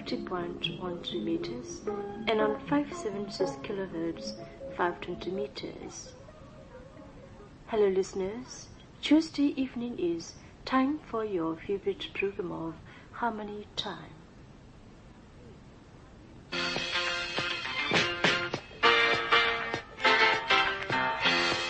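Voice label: Radio Yangon 5986 kHz sign-on in English, Myanmar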